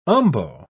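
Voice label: "umbo", British English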